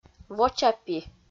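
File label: "воча пи", Komi, female; audio dict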